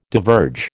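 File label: "diverge", American English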